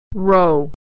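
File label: "row", American English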